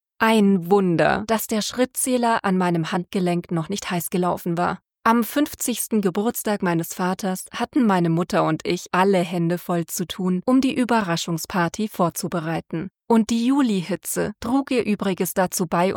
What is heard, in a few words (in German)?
Hoerbuch